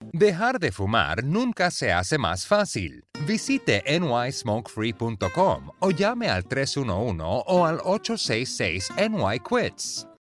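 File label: Latin American Spanish, Commercial; GoLocalise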